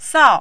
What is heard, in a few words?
"sao4", mandarin